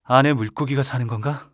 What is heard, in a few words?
move In Pond, ko; Black Survival Eternal Return